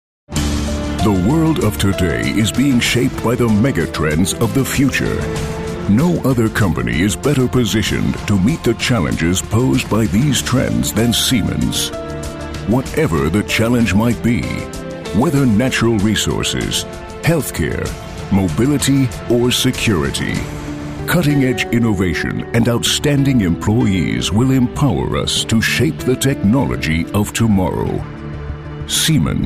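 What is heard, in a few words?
American English, Werbung, Kraftvoll; EngUS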